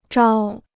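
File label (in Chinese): "招" Chinese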